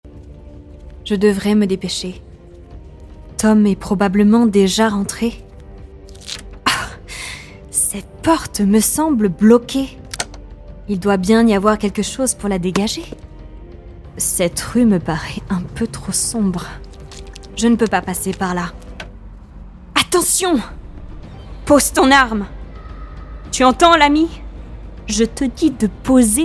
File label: Animation; FR; GoLocalise